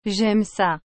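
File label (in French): "Jaime ca", French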